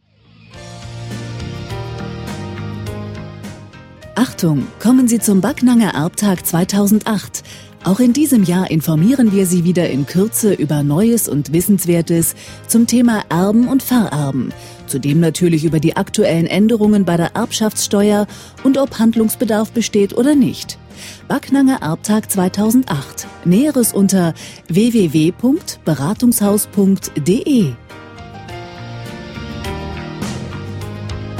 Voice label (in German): Werbespot; Beratungshaus Backnang